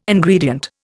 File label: "Ingredient", Indian